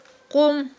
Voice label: "къум", Aghul